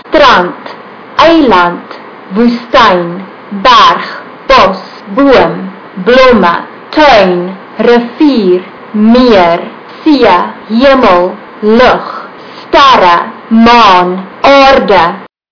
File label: afrikaans